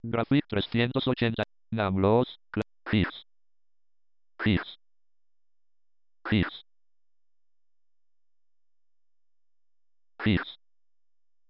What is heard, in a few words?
my name spanish